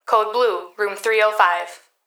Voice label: Emergency Human Female Voice Hospital Calls Code Blue Room; emergency effects